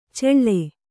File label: "ಚೆಳ್ಳೆ", Kannada